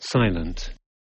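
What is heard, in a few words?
"silent", English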